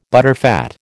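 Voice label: "butterfat", American English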